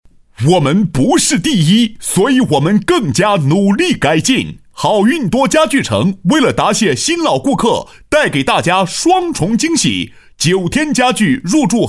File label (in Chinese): 广告-促销类, 男; 新闻广告专题颁奖; 好运多家具